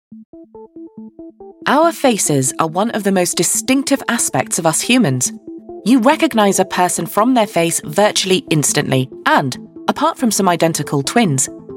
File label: e-learning sample